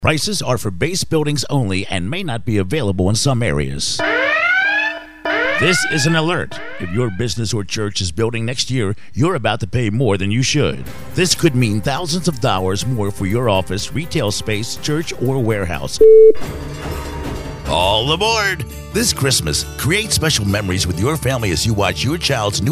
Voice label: commercials